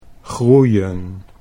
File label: "groeien", Dutch